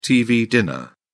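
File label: "tv dinner", English, British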